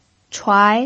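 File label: "chuai" second tone, Mandarin Chinese pinyin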